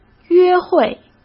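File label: "约会", Mandarin Chinese; chinese-flashcards; hsk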